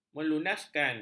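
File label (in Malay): "melunaskan", Malay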